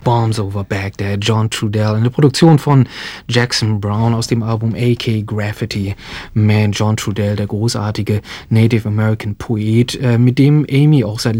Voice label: german translation

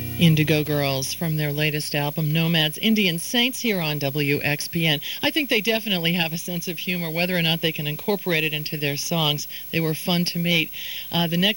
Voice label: announcer; wxpn - philadelphia, pennsylvania

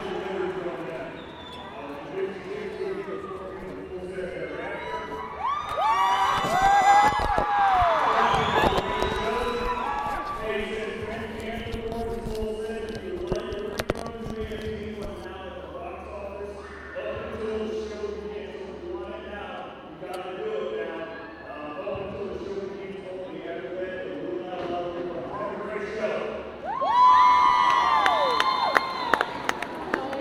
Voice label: announcement

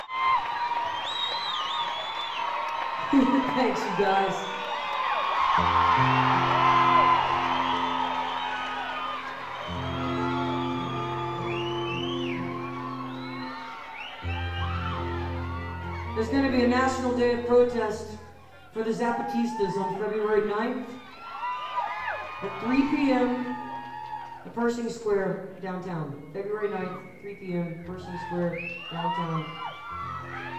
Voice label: talking with crowd; universal amphitheatre; los angeles, california

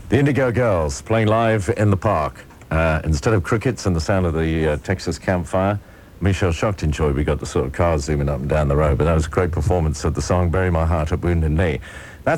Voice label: announcer; radio

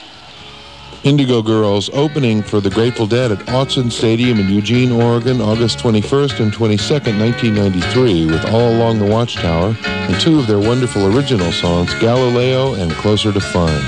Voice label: reporter

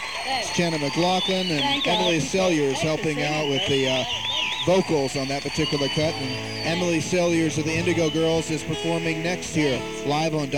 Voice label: radio announcer

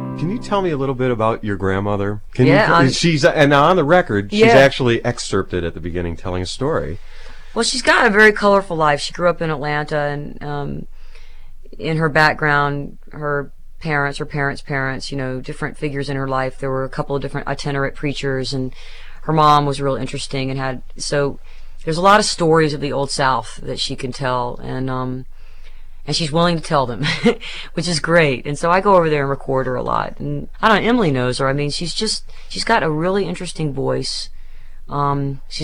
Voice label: chat; acoustic cafe

